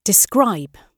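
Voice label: "describe" spoken, British English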